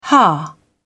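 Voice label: "hah", British English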